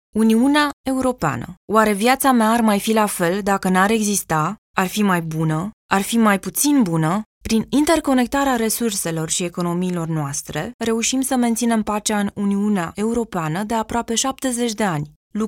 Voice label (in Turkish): Romence Kadin, seslendirme; kadin sesler